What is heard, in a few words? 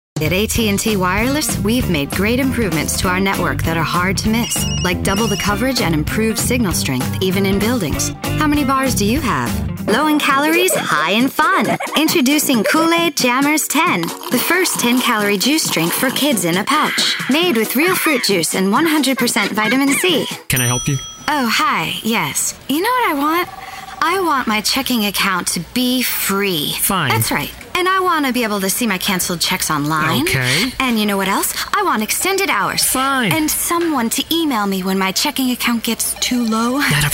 commercial